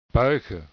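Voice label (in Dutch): "buigen" Dutch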